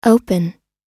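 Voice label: "open", American English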